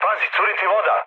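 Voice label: Serbian damaged water mood med; speech; War Thunder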